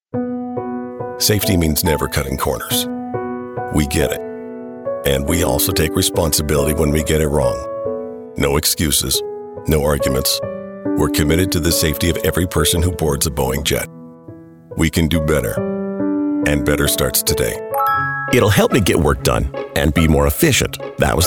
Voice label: Commercial